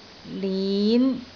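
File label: "lin5", Cantonese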